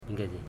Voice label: fourteen, Ipili

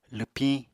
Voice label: "lwpiñ", Apatani

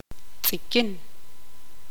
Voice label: tzutujil

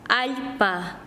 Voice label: "tierra", Quechua Chanka

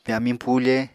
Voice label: "pyamiñ pufe", Apatani

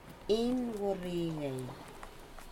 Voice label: "boards", Aneityum

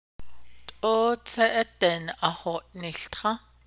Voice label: navajo; The rain has gotten worse

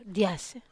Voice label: "as", Wamesa

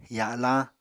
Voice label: "ya7lañ", Apatani

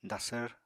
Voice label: "dasvr", Apatani

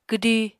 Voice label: "kwdi", Apatani

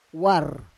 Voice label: "war", Roon

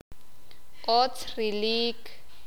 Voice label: "feo", sakapulteko

